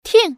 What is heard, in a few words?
ting, woman; mahjong gansu